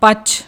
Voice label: "pachh", Punjabi; ਪੱਛ; پچھّ; noun, masculine; slight cut; phlebotomy, blood-letting

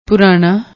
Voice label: "poorana", Punjabi; ਪੁਰਾਣਾ

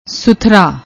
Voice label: "suthra", Punjabi

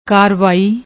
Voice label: "kaarvai", Punjabi; ਕਾਰਵਾਈ

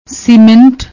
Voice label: "cement", Punjabi

